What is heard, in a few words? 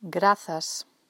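"Grazas", thank you, Galician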